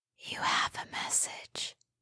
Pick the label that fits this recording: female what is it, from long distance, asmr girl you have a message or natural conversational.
asmr girl you have a message